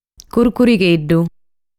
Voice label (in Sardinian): "Curcurigheddu", Sardu